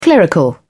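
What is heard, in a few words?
"clerical", British English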